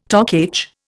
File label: "Toc H", Indian